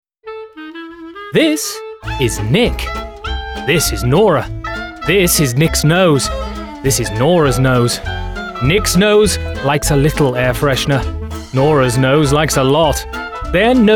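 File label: British male advert, englisch; werbung; sprecher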